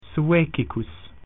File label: "Swedish", Latin